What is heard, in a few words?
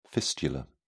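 "fistula", British English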